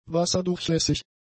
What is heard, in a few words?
"wasserdurchlässig", German; adjectives